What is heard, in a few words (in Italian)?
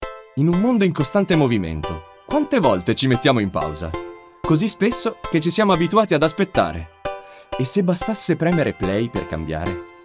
Spot istituzionale